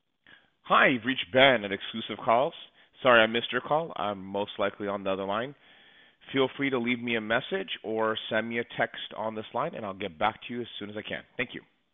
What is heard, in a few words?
ivr greeting